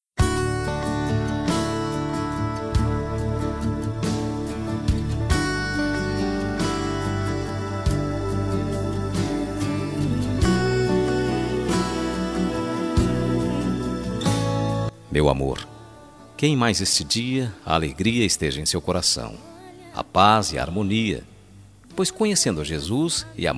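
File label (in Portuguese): Aniversário Romântico Evangélico Masculino, telemensagem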